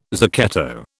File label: "zucchetto", British